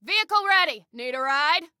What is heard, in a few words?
Need Vehicle Ready, Female